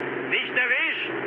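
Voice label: German bomb failure mood low, speech; War Thunder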